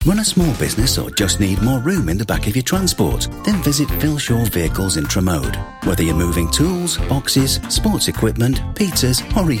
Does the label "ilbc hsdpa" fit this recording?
No